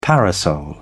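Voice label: "parasol", British English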